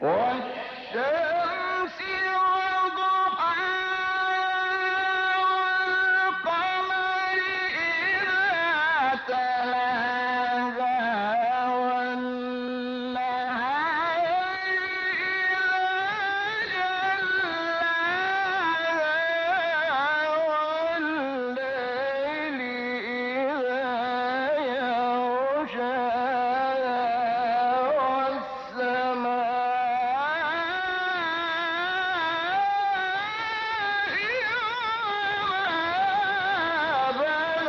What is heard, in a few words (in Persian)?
تلاوت مجلسی سوره مبارکه شمس; آیات 1 5